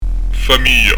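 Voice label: "famiglia", Corsican; fam'ija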